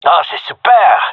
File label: cheer, male; vo